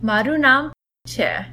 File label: my name is, gujarati; મારું નામ ..... છે; maru naam ... chhe